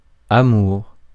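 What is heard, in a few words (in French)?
"amour", French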